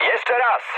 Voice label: bomb failure mood med, speech pol; War Thunder